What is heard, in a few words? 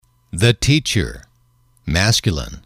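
"the teacher", English, male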